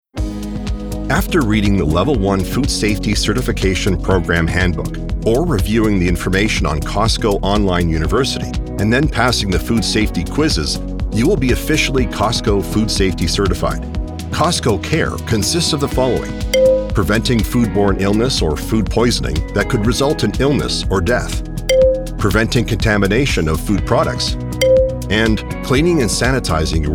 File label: eLearning Demo; COSTCO